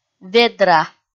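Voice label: "ведра", Komi, female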